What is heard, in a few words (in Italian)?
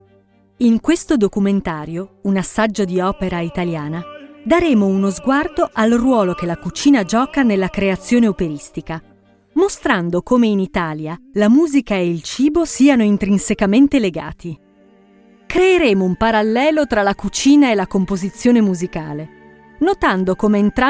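Italian, Documentary; voice demos; GoLocalise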